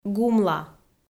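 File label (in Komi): "гумла", Komi, female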